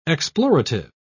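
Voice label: "explorative", English, British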